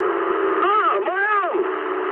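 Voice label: damaged pilot mood medium, speech English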